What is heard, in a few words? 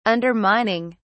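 "undermining", English, British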